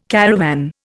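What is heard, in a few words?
"caravan", Indian English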